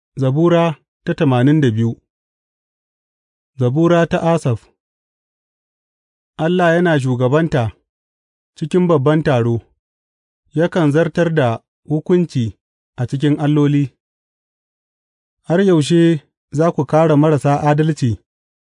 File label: hausa bible drama, psalms; hausa bsn nt drama